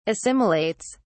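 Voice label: "assimilates", British English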